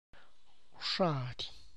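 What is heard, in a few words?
Tlacochahuaya Zapotec; grasshopper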